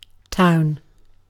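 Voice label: "town", British English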